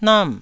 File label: "nam4", taiwanese